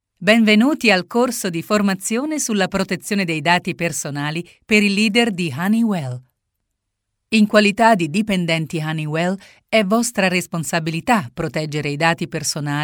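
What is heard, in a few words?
Italian elearning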